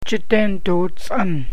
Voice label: "december", Gwich'in; Jideendoo ts'an